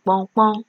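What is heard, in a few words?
"kponkpon", Nigerian Pidgin, female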